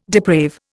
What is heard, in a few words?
"deprave", Indian